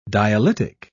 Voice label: "dialytic", pronounce